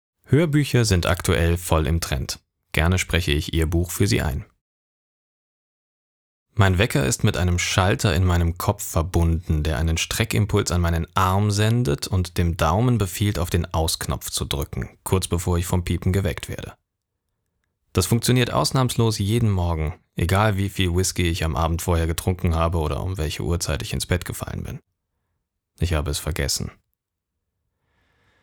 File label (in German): Sprecherdemo Hoerbuch